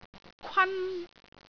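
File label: "kwan1", Cantonese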